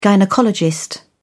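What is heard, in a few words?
"gynaecologist", British English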